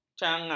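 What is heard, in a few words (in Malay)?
"cangap", Malay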